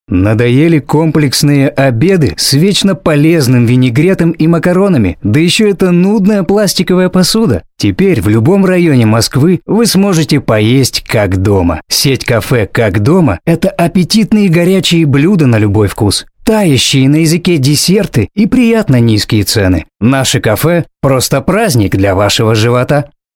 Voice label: Реклама

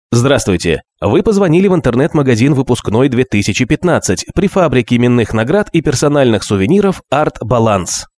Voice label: АВТООТВЕТЧИК; Артбаланс

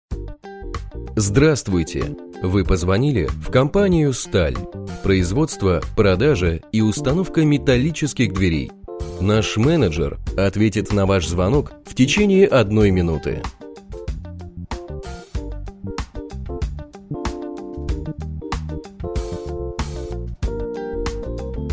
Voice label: Голосовое приветствие

